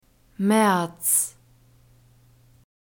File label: "maerz", german; masculine